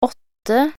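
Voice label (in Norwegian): "åtte", Norwegian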